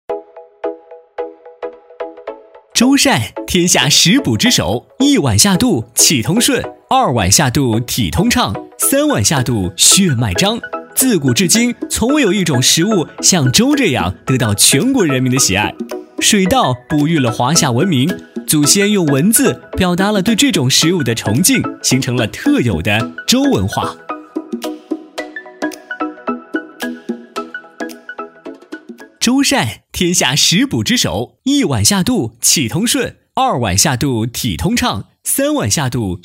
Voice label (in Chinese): 男 活泼旁白 美食节目